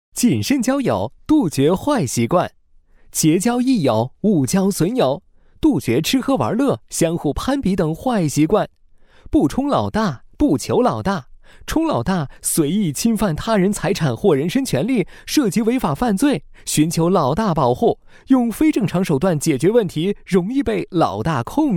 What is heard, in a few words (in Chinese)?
男 法制公益宣传; 飞碟说